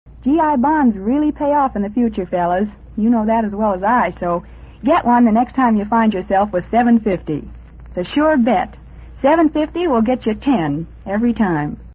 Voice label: commercials; g i bonds really pay off

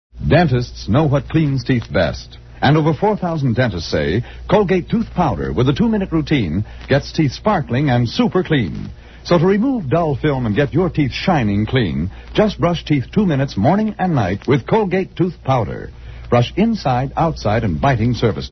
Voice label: commercials, tooth powder; colgate

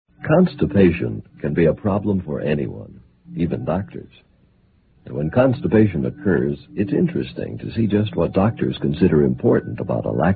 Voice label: vintage commercials; exlax

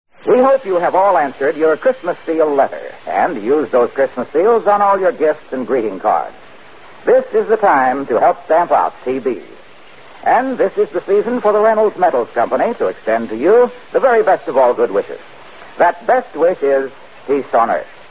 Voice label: vintage commercials aluminum; reynolds

